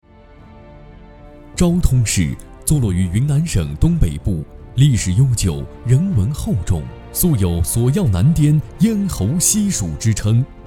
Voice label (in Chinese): 宣传片大气稳重